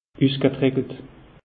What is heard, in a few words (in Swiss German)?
"usgetrickelt", alsace; meteo